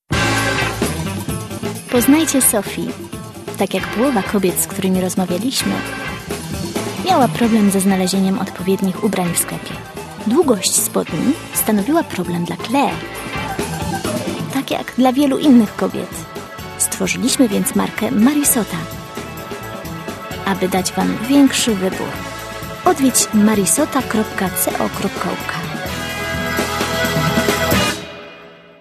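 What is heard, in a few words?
Polish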